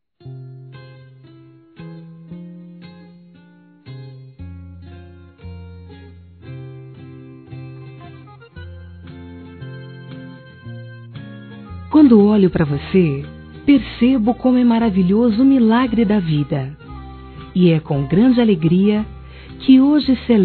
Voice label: Aniversário Neta Feminino

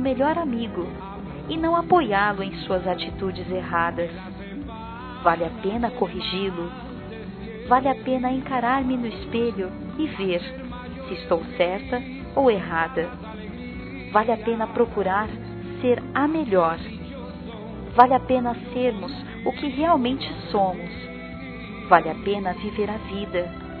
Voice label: VOCÊ ME ENSINOU TUDO, Telemensagens, Dia dos Pais, fem; Telemensagens 2017

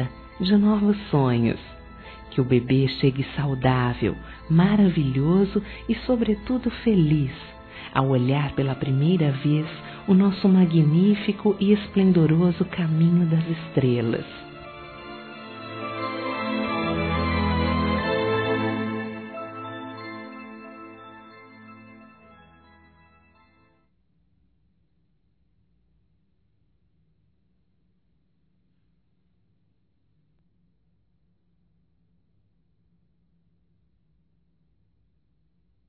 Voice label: PARAB. CHA DE BEBE F; fem

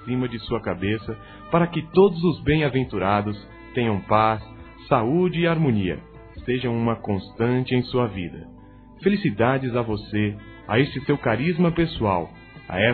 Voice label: aniversário amiga m; Aniversários; Aniversários diversos

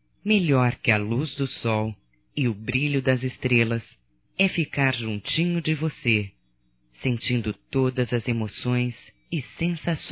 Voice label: ROMÂNTICA - F; Românticas

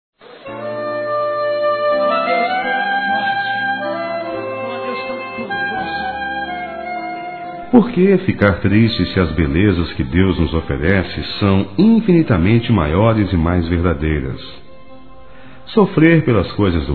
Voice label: Evangélico Aniversário Otimismo Masculino, Telemensagens

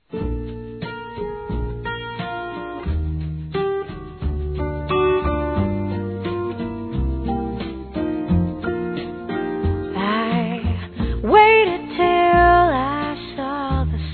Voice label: Saudades Romantica Feminino; Telemensagens

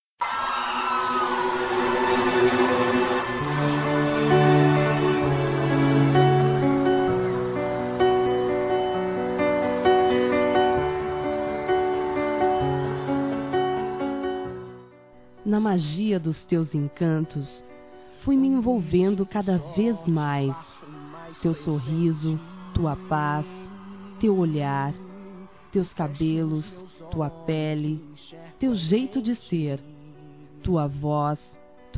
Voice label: SENSUAL, Românticas, fem; Telemensagens 2017

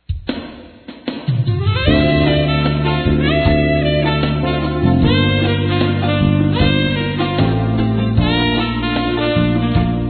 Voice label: sogra f; Aniversários; Aniversário Distante; Fem

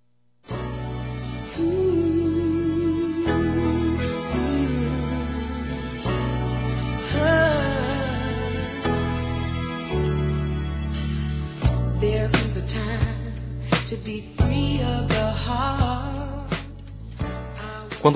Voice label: Aniversário Esposa Masculino; Aniversários; Aniv Familiar

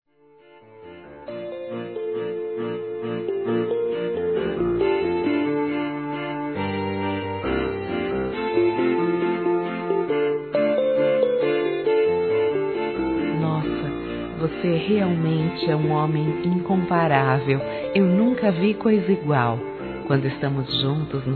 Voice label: CORPO DE BORRACHA, fem; Telemensagens; Sensuais

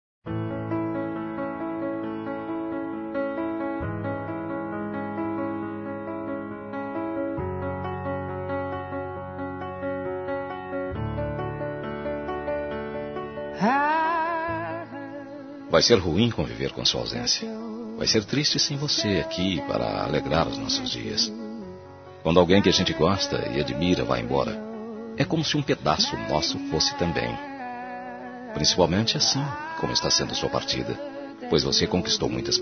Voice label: Despedida ( Quem Vai ) Masculino; Telemensagens 2017; Despedida Boas Vindas

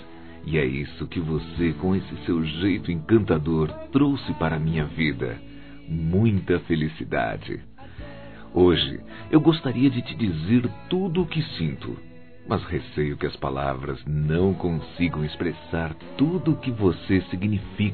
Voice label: Romantica Aniversário Namoro Masculino, Telemensagens

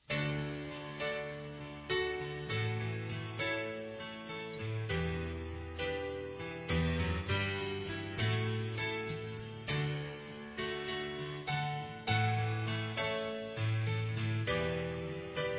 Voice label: Neutro, fem; Telemensagens 2017

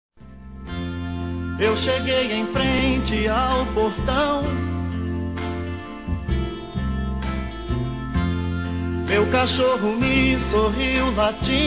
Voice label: ANIV. VOVÔ-F, Telemensagens; Aniversário Infantil

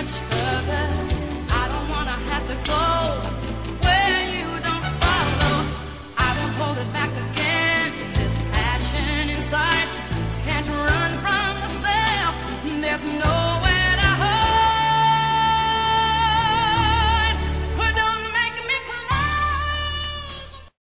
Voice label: MENSAGEM P MAMÃE M, Telemensagens; masc; Telemensagens 2017

